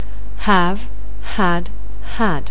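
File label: "have", English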